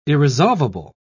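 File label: "irresolvable", British English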